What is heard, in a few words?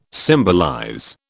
"symbolize", British